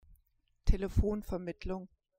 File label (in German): "telefonvermittlung", german